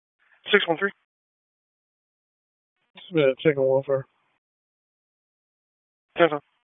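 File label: Raleigh Police Southwest District Dispatch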